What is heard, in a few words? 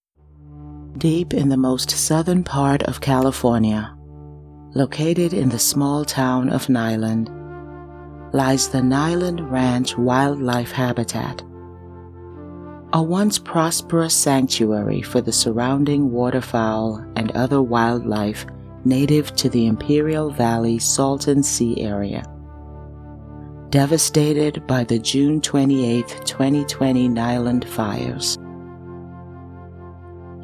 english american documentaries; caribbean english; locutora profesional en inglés